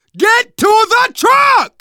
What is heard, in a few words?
arrive truck, survivor voice; manager; Left 4 Dead 2; left4dead2 dlc2